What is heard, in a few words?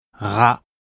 uvular trill